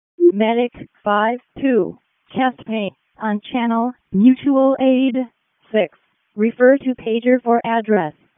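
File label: Wake County EMS ALERT, p25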